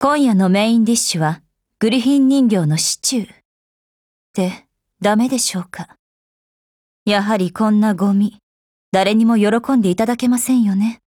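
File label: DIALOGUE, Japanese; Agent buhuo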